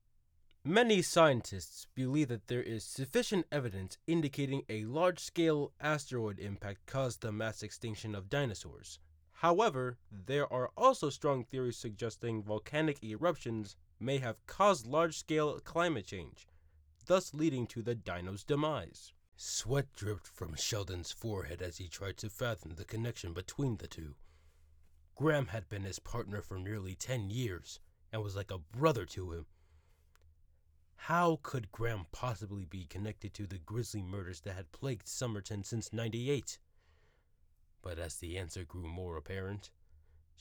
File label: Narrator Sample